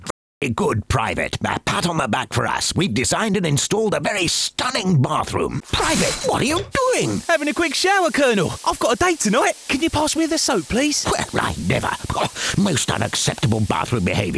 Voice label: radio advert